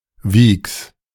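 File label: "Wyks", German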